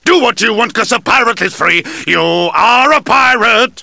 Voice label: pirate